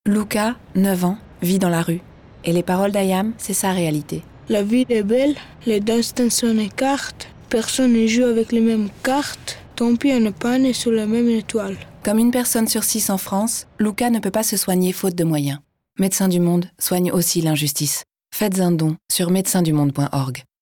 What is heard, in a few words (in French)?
Spot Radio; France